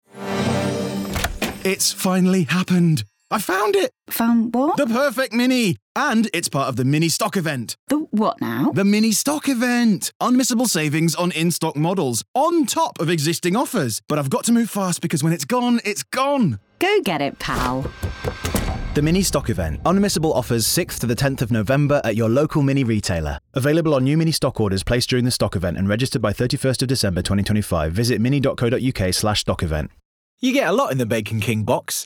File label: Commercial; English (British)